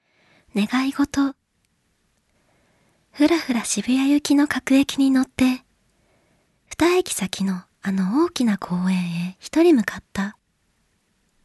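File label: Narration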